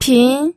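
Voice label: "pin", Mandarin Chinese, second tone; pinyin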